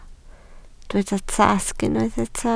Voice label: "tasg", Welsh